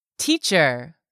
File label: "teacher", english; 3000 words